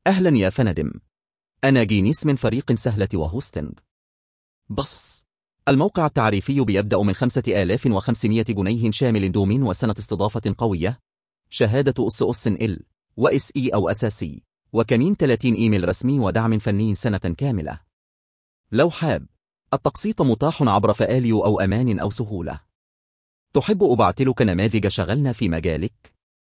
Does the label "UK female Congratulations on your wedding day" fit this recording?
No